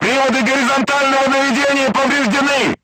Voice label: voice message horizontal aiming drive damaged, crew tanks russian